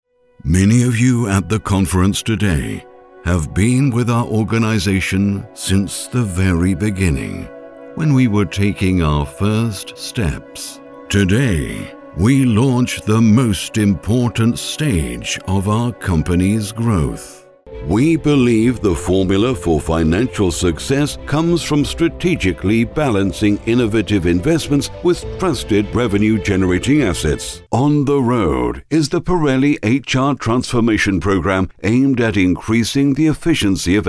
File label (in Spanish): Masculino